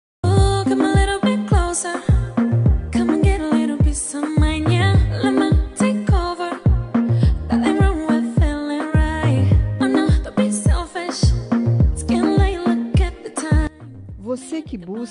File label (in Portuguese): mulher neutra feminina, Dia das Mulheres Neutra – Voz Feminina; Telemensagens